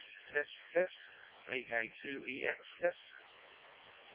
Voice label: decoded speech, parrot